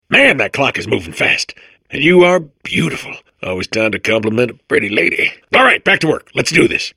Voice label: babble; Portal 2

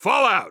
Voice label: radio lets go; Counter Strike Model Pack; CS:GO; phoenix coop

